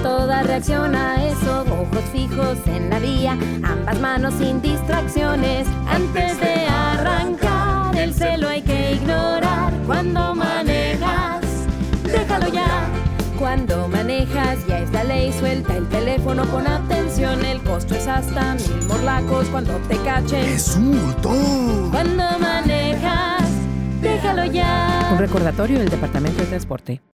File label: Spanish Radio Park Your Phone